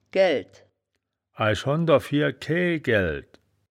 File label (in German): "gaelld", wittlicher